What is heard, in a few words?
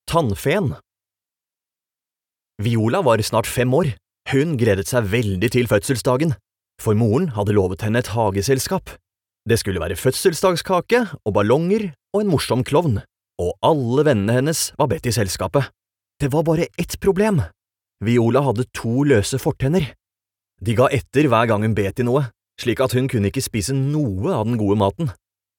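Norwegian Audiobook Male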